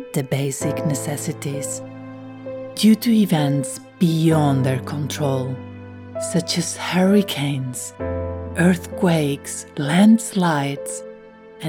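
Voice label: voice over English non profit sensitive persuasive calm; Narration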